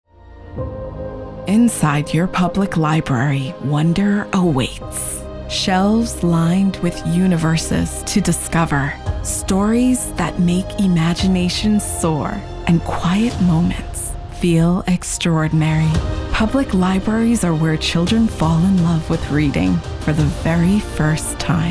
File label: Commercial; Public Library